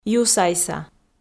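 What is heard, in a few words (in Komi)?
"юсайса" Komi, female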